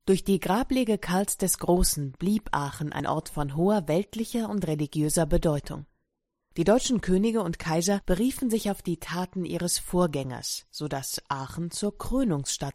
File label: audioguide; reichskleinodien aachen